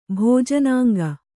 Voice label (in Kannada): "ಭೋಜನಾಂಗ", Kannada